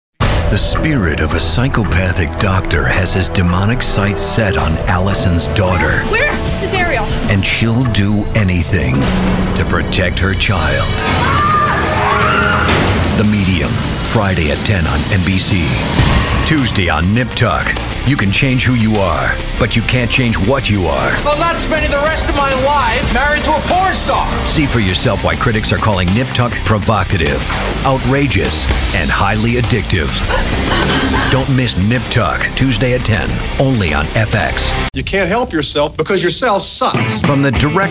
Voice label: promo, men

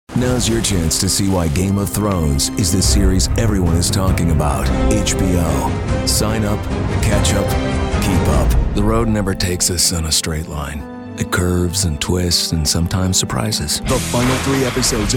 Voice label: promo male drama

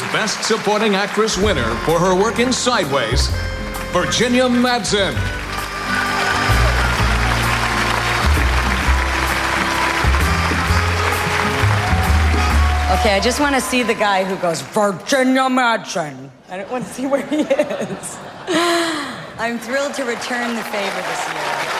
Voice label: award show announce; show and stadium announcers

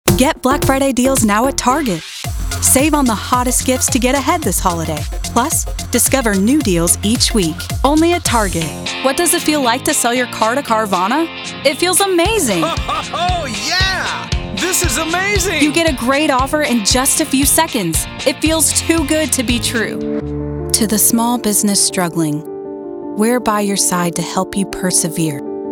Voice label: commercial women

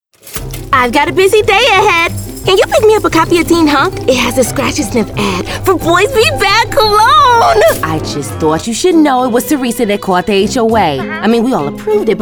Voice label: animation female

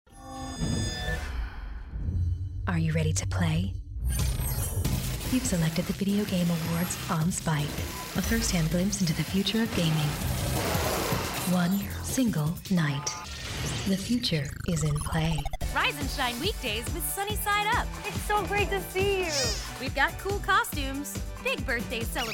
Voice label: promo, women

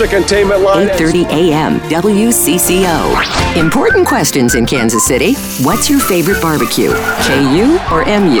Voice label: radio women news; radio imaging

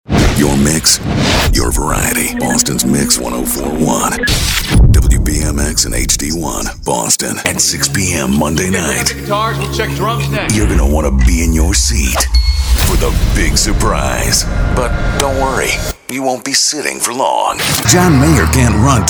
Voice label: radio chr; radio imaging

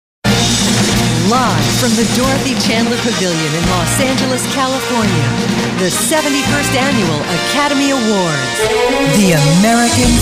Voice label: show announcer; show and stadium announcers

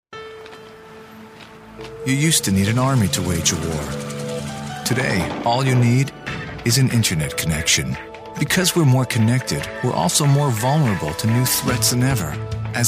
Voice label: narration

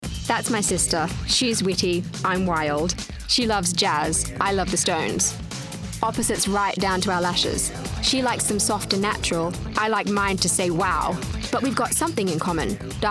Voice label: languages british

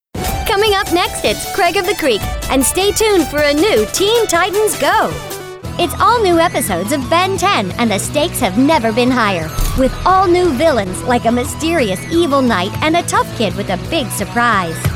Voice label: promo women kids promo

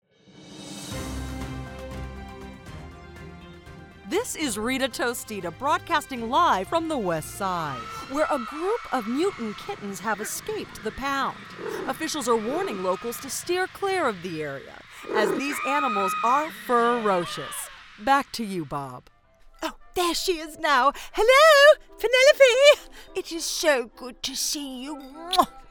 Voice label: animation women

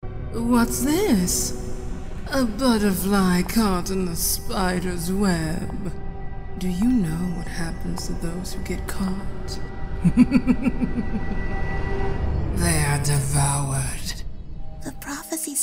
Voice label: animation vox character, nonbinary

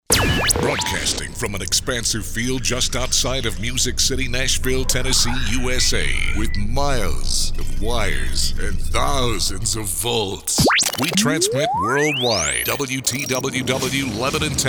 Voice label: radio men; radio imaging

